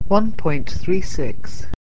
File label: "1 point 36", English